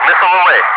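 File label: "missile away", English speech, Wingman; DCS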